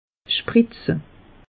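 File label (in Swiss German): "spritze", Alsatian; meteo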